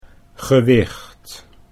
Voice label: "gewicht", Dutch